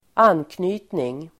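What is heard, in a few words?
"anknytning", Swedish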